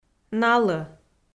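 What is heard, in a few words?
"налӧ", Komi-Zyrian, female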